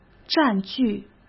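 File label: "占据", Mandarin Chinese; chinese flashcards; hsk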